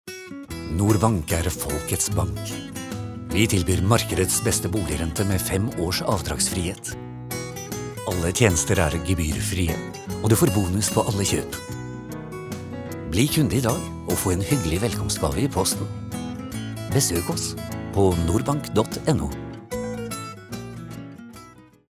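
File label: Norwegian commercial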